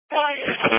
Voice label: Police Patrol 1 (Dispatch)

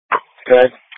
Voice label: Police Patrol 1 (Dispatch)

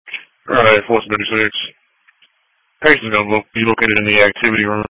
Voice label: EMS Dispatch

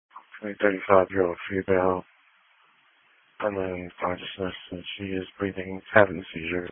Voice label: EMS Dispatch

